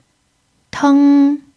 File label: "teng1", chinese